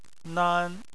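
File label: "nan", Chinese, first tone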